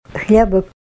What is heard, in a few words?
bread, russian